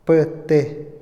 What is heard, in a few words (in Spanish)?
sustituir, Otomí, hombre; INALI